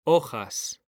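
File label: "hojas", Spanish, male; mekanta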